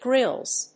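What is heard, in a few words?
"grills", English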